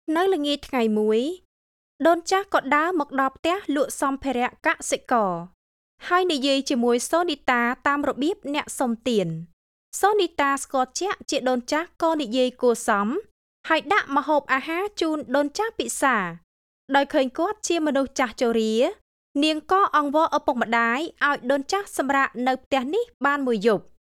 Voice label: Book Read